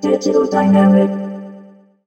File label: Digital Dynamic G2, Robotic Vox; Zero-G Club Techno